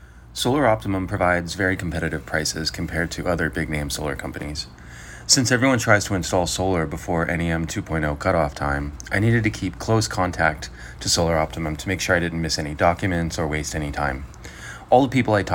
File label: testimonial